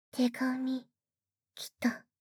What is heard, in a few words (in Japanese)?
手紙…きた (新着メールの通知), システムボイス; Windows等向けシステムボイス